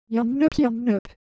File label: Korean, Ideo Phones; Texture